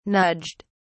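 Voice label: "nudged", British English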